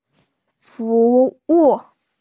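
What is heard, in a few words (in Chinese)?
"fu2wu4", Mandarin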